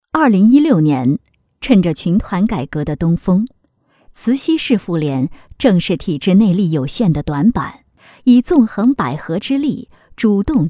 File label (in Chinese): 专题 汇报 妇联工作 稳重